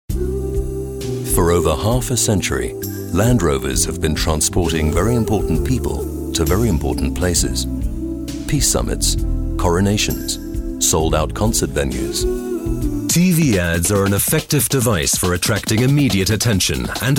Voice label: Off EN UK; flippig frech verspielt